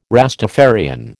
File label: "Rastafarian", American